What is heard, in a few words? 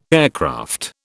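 "aircraft", British English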